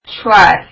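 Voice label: "tshwar", Kurdish, female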